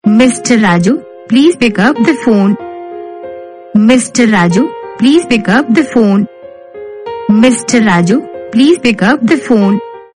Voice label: someone calling please pick up the phone, ringtone